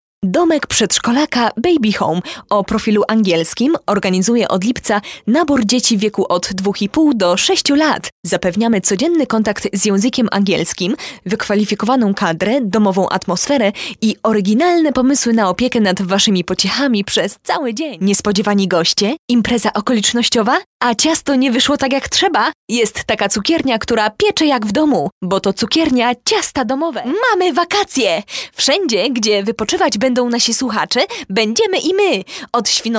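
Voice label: Polish commercial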